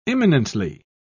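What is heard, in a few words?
"imminently", British English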